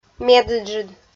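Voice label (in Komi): "медыджыд", Komi-Zyrian, female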